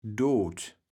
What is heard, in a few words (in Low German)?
"Doot", kaevels platt